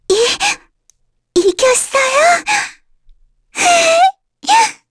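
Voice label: Vox Victory, Korean; Lavril; kingsraid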